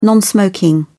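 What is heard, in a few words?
"non-smoking", British English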